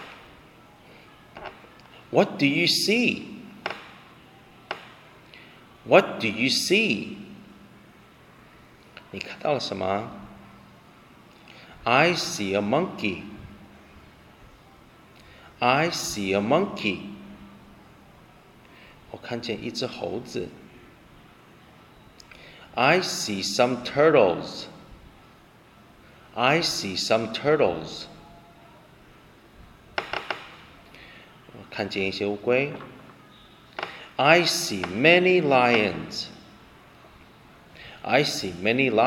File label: conversation